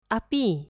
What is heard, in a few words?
fire, malay